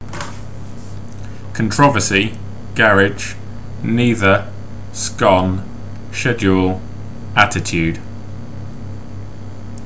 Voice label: salisbury male

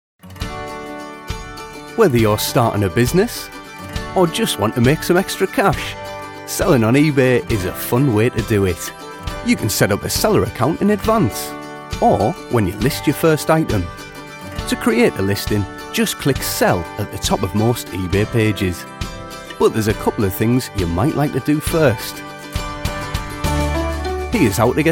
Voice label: corporate; ebay

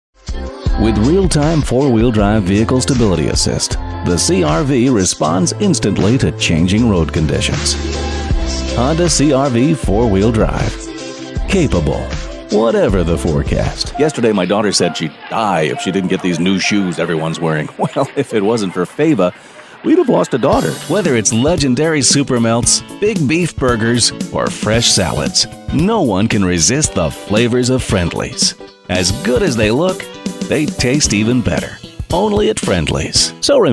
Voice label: commercial

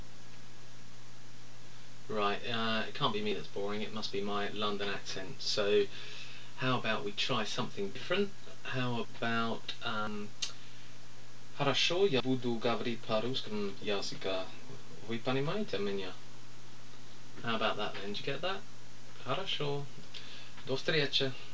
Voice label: different tongue

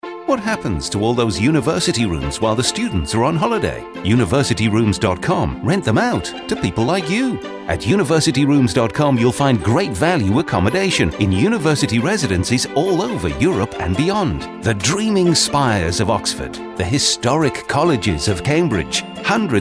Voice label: radio advertisement

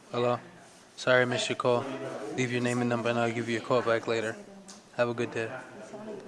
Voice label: voicemail

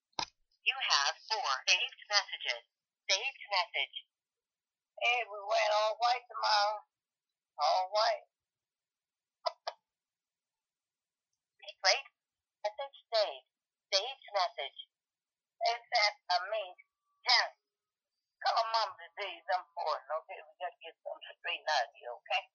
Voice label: old black woman voicemail

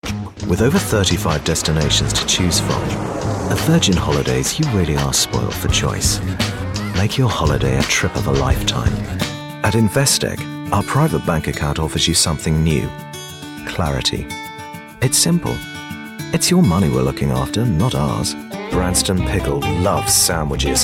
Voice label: voiceover

